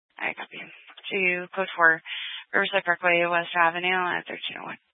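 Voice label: Police Dispatch, Scanner audio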